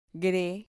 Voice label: "gre", Spanish